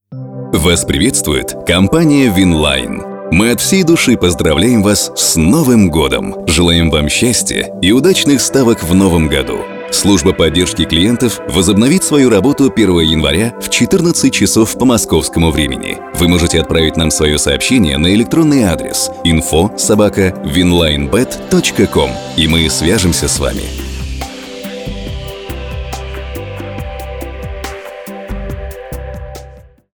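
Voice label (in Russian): Автоответчик компании, Диктор, мужской; Винланй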